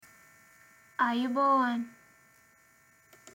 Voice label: sinhala ayubowan welcome hello bye